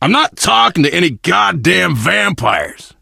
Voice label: initial meeting, survivor voice biker